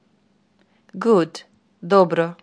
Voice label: dobro, macedonian